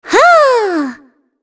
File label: Happy; Shea; kingsraid